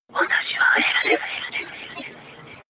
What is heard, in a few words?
Whisper